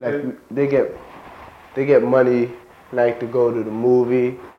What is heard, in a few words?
Conversation with 18 year old black male New York New York; american english dialect